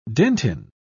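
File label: "dentin", British English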